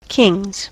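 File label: "king's", American English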